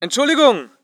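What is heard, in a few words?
voice german infantry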